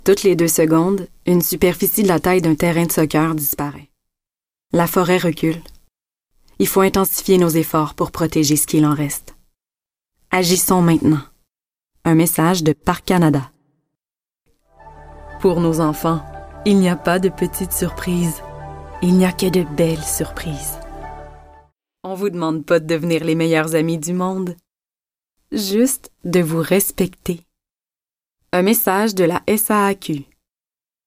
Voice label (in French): annonceur